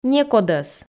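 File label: "некодӧс", Komi, female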